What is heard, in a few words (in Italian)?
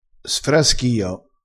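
"sfraschio", dialettale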